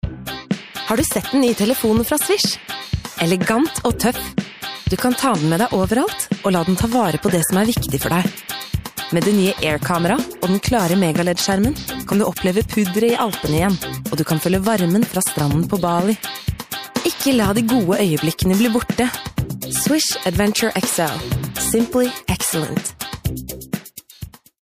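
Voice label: norwegian female commercial, voice demos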